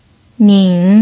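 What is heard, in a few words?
"nin", third tone, Mandarin Chinese pinyin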